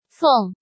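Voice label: "Fèng", Chinese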